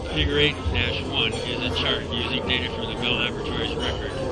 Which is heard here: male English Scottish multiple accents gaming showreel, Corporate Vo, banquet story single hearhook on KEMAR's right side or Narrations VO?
banquet story single hearhook on KEMAR's right side